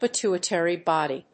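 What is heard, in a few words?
"pituitary body", English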